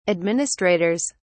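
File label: "administrators", British English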